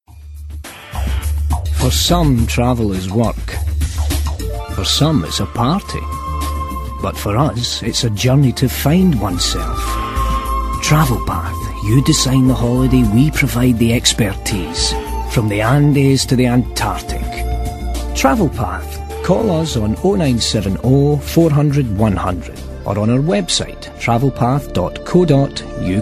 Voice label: Scottish